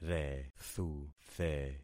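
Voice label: "rethuthe", concatenated syllables; Affixing experiment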